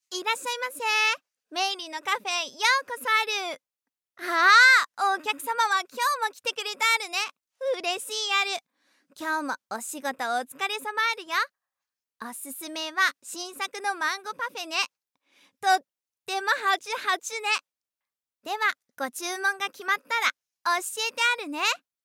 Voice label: Moekei Chugokujin Waitress

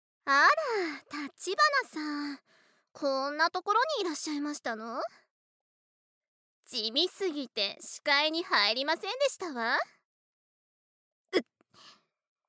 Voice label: 意地悪なお嬢様

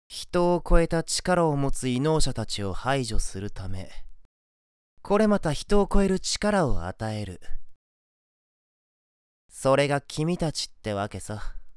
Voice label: Syonen

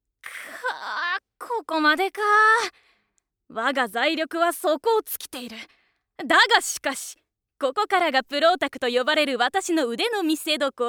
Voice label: Otaku Joshi

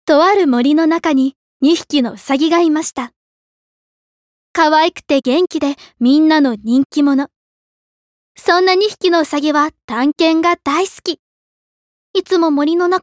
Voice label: Narration Roudoku

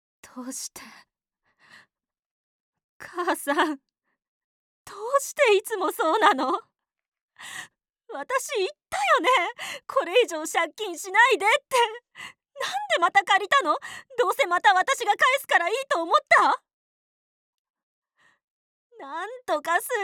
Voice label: 不幸な女性

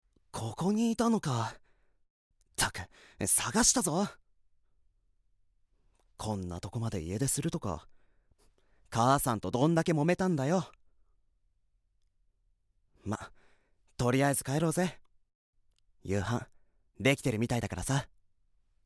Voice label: 優しい兄

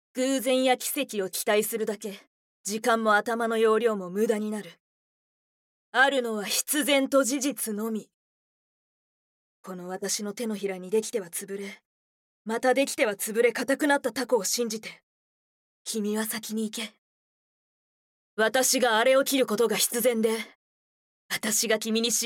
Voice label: Shin No Aru Onna Kenshi

